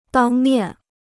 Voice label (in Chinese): "当面", Chinese